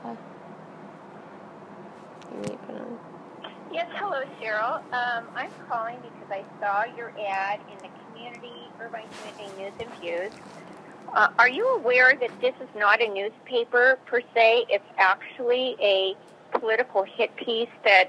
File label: Anonymous Voicemail